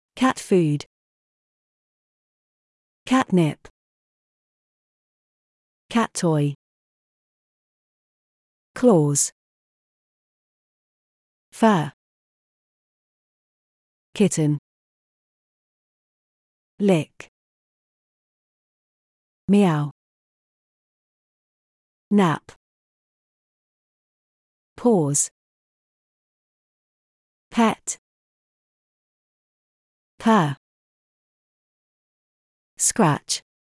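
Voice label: British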